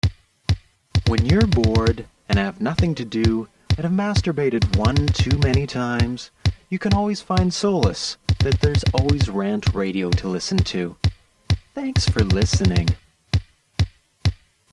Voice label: Promo; Masterbated; RantRadio; Station Promos-In House

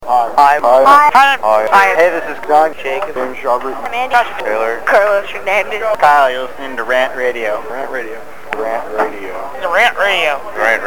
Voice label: Mall Promo; RantRadio; RantMedia; Station Promos-Listener Submitted

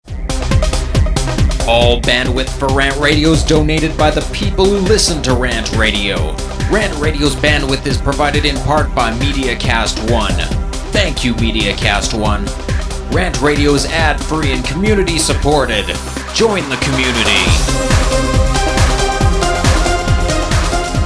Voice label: Promo industrial psa; RantRadio; Station Promos-In House

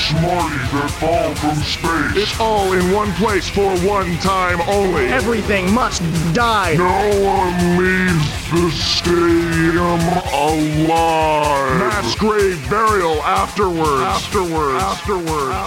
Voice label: Promo Monster Truck; RantRadio; Station Promos-In House

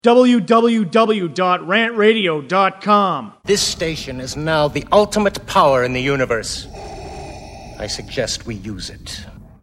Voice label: Promo; The Ultimate Power; RantRadio; Station Promos-In House

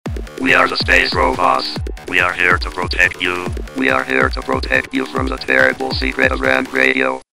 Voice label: Promo; Space Robots; RantRadio; RantMedia; Station Promos-Listener Submitted

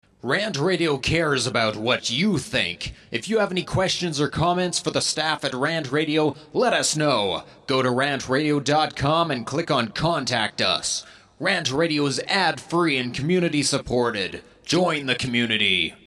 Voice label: Promo solo psa; RantRadio; Station Promos-In House

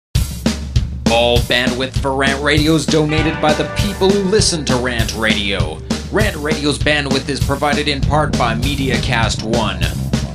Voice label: Promo punk psa; RantRadio; Station Promos-In House

